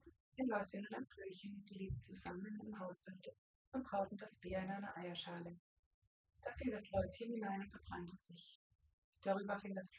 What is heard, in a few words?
female4 synthconv; SiSEC08; SiSEC underdetermined